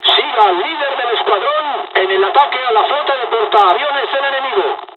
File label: flight, dialogs spanish; War Thunder